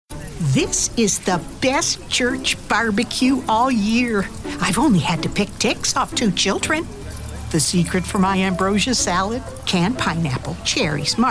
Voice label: Animation Demo